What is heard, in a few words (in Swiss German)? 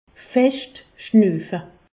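"schnuufe", Alsace, Haut Rhin; medecine; respirez fort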